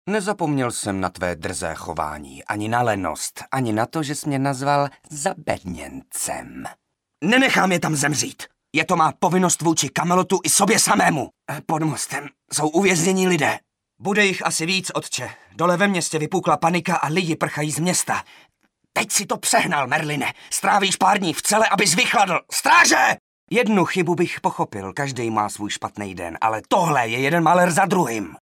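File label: Male Czech drama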